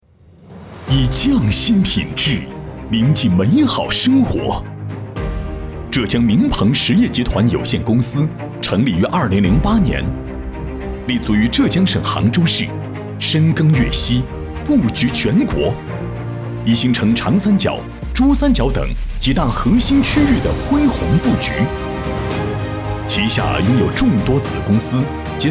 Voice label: 大气震撼恢弘公司宣传片男

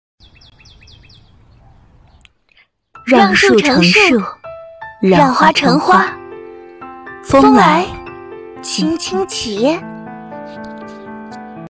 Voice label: 角色活泼少女和文艺少女叠音

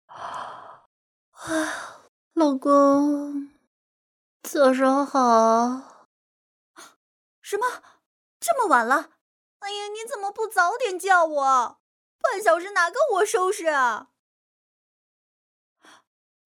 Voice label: 少御音

